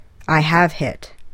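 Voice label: "I have hit", English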